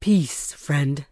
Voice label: Tauren Female Official NPC Greeting